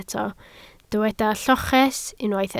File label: "lloches", Welsh